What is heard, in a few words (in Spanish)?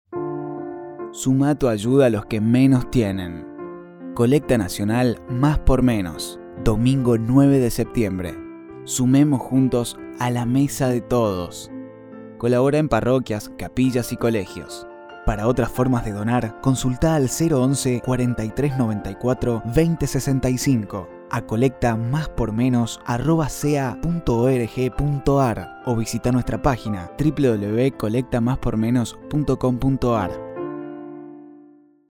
Spot Radio